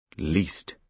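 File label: "least", British English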